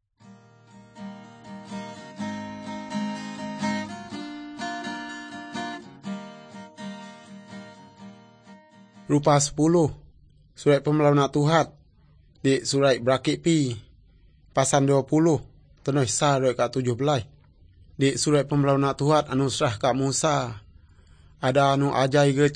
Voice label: good news, urak lawoi